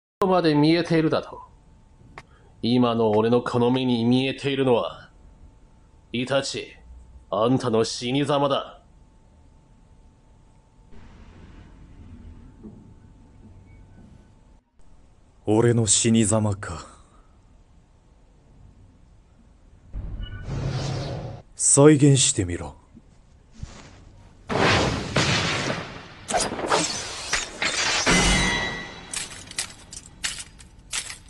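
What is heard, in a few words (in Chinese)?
日语动漫角色; 日语样音; 宇智波佐助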